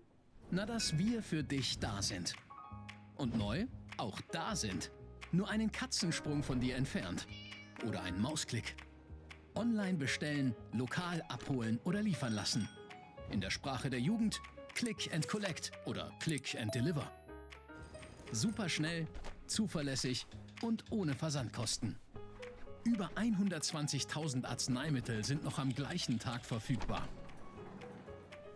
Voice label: Image commercial digitalisierung; aposanitas